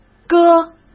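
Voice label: "割", Mandarin Chinese; hsk; chinese flashcards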